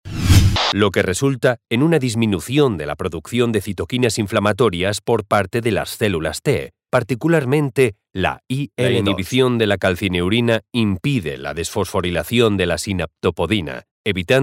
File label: spanish medical narration; professional voice actor from Spain